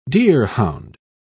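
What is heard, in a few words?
"deerhound", British English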